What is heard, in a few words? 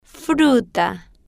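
"fruta", slow, spanish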